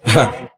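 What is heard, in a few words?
"huh", vocal sample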